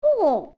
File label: Old Lady Oh